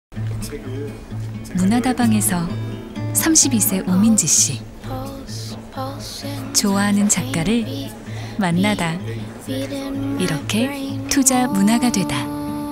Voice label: 부드러운; NH투자증권

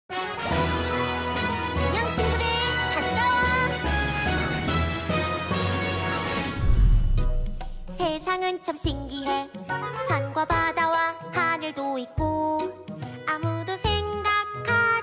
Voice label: 오프닝 밝은 10대; 뽀로로 동화나라

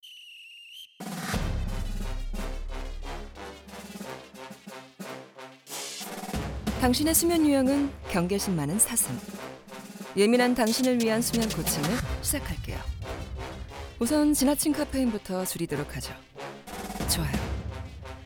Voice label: 광고; 갤럭시워치5

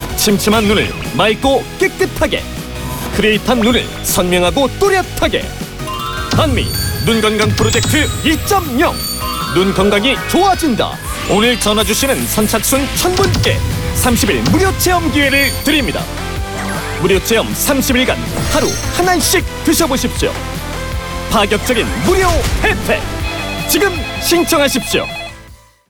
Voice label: 홈쇼핑

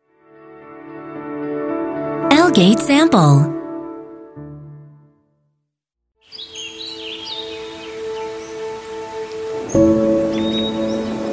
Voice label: 중국 남 차분한 톤; 서울우유

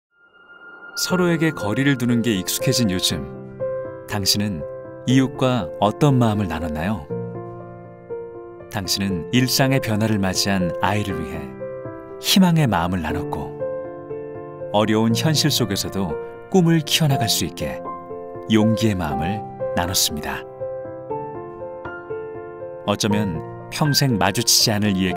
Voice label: 스팟 따뜻한 느낌; 굿네이버스

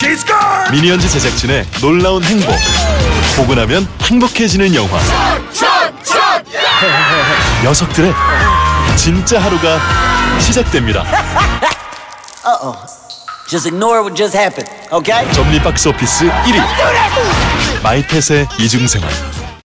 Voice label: CF 밝고 활기찬; 마이펫의 이중생활

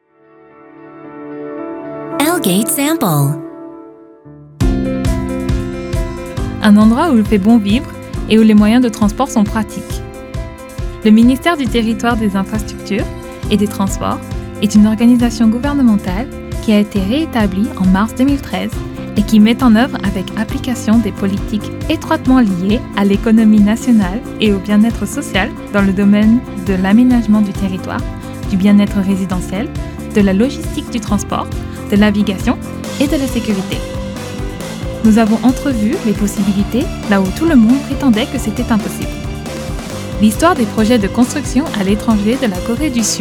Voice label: 프랑스 여 밝은톤

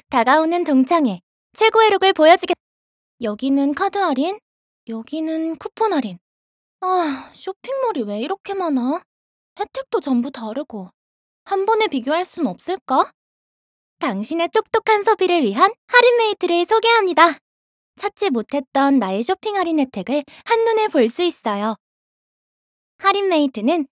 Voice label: 밝은 톤 20대

